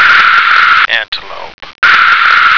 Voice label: "antelope", American